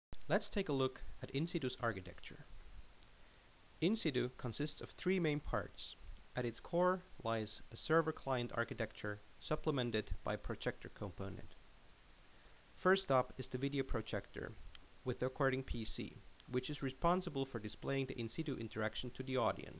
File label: Narration